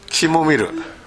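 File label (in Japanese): "kimomeru", 南山形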